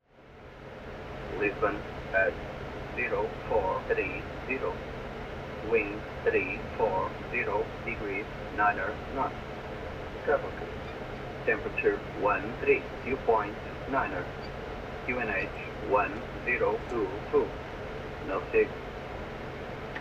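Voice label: aircraft message weather; aircraft msg weather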